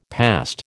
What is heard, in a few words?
"past", American